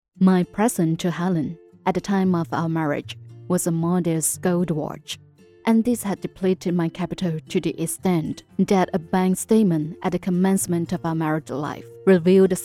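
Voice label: Cantonese Mandarin English Female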